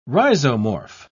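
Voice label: "rhizomorph", British English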